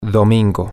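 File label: Spanish, sunday, male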